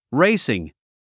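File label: "racing", English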